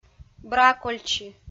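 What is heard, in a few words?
"бракольчи", Komi, female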